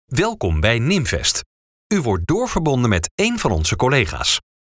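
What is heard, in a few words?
dutch interactive voice response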